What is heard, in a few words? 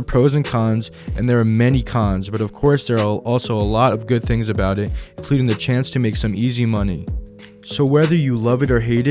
chill tok podcast trailer; LIHSA Student Podcasts